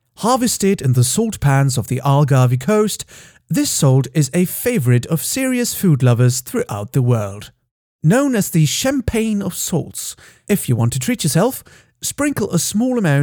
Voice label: british